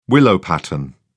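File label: "willow-pattern", British English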